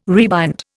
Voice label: "rebind", Indian